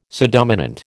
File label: "subdominant", American